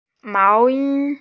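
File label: "mao2yi1", Mandarin Chinese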